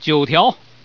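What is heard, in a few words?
"9 tiao", Chinese, male; 男; 欢乐麻将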